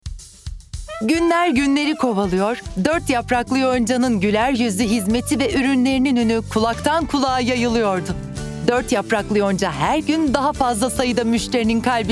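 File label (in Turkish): sicak samimi, seslendirme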